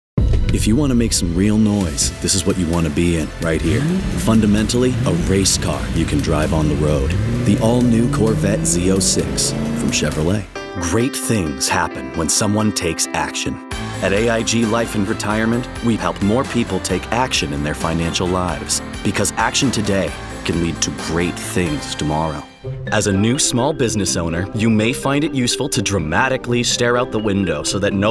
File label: Commercial; voice over